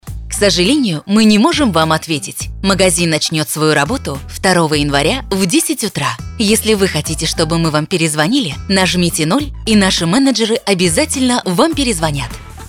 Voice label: IVR